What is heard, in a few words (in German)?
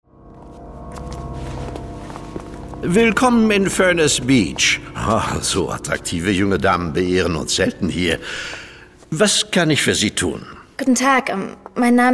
Hoerspiel